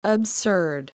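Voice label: "absurd", USA, female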